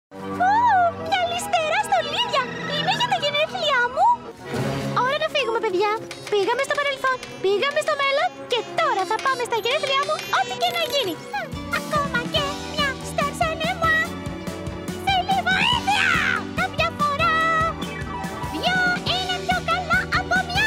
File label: speaking singing; Miss Piggy; Muppet Babies